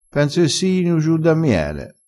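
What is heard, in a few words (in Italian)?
"siino", vocabolario dialettale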